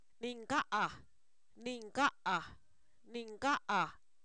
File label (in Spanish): verde, Zapoteco, san miguel albarradas; adjetivos